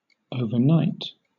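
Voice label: "overnight", British English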